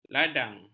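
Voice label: "ladang", Malay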